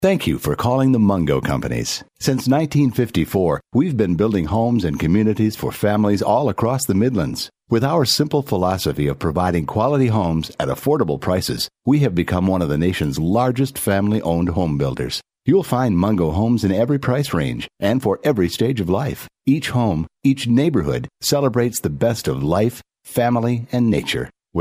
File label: ON-HOLD